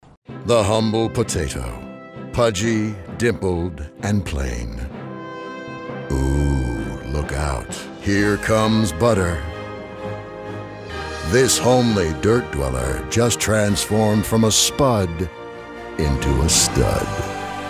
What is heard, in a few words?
commercial